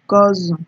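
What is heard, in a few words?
"gozzle", Nigerian Pidgin, female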